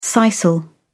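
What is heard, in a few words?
"sisal", British English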